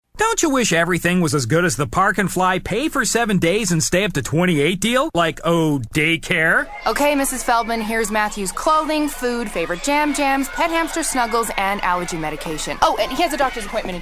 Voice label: male canadian multiple accents and characters commercial compilation